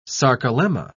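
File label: "sarcolemma", British English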